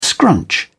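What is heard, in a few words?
"scrunch", English, British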